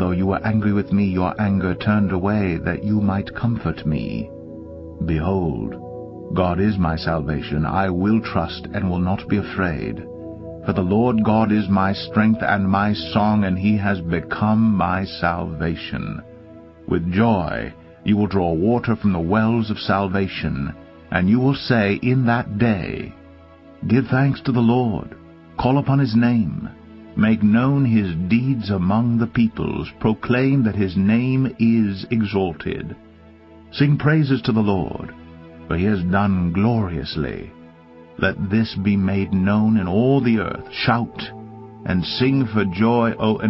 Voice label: Isaiah English Standard Version